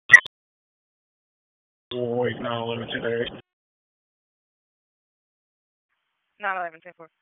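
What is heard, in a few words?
Sheriff's Office Dispatch 1, p25; wake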